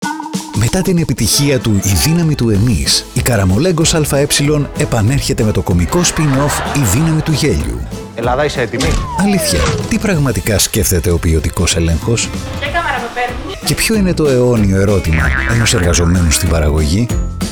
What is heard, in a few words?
corporate